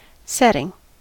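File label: "setting", American English; pronunciations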